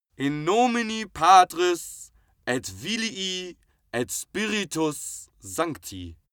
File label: male pray latin